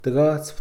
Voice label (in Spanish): "convertirse", Mixe, hombre; Moderno